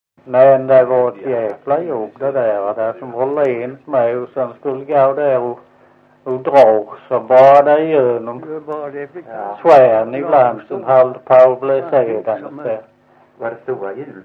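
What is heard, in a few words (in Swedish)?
Visseltofta Skåne, Svenska sagor dialekter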